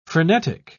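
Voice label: "phrenetic", English, British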